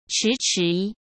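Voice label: "迟迟", Mandarin Chinese; chinese-flashcards; hsk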